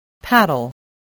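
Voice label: "paddle", spoken word, spelling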